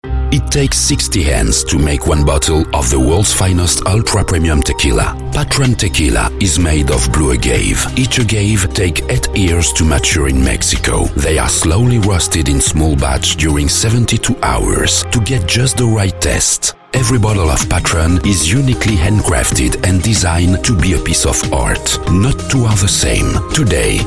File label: French English Sample